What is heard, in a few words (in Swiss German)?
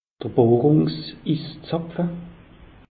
"bohrungs iszapfe", alsace; meteo